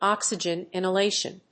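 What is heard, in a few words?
"oxygen inhalation", English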